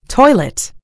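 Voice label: "toilet", British English; gcompris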